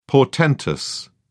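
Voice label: "portentous", British English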